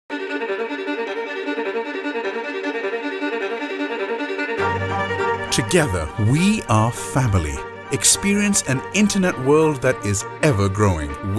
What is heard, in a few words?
English voice over; wi tribe